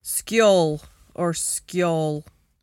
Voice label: "skyaul or skyawl", Cromarty; [sKEEol or sKEEohl]; Word